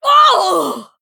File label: Female Draenei Death